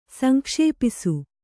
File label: "ಸಂಕ್ಷೇಪಿಸು", Kannada